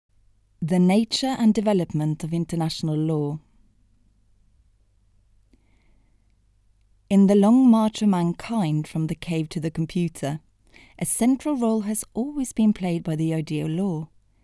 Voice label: english audiobooks; norwegian voice actors